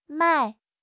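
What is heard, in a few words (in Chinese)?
"迈", Chinese; HSK; HSK6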